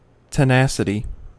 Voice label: "tenacity", US; pronunciations